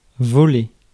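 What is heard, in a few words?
"voler", French; Mots francais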